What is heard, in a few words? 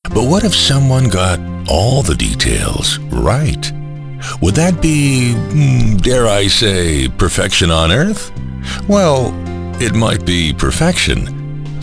Narration, Male voices